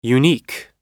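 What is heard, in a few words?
"unique", English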